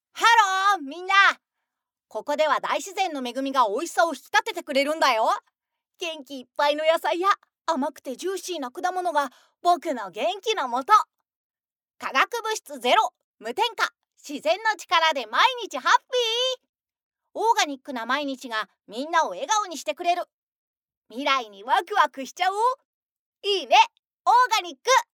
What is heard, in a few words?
female; 少年